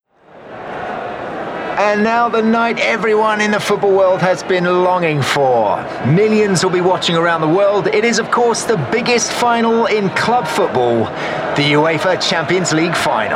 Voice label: Commentator, Versatile, Sports, Diverse, RP ('Received Pronunciation')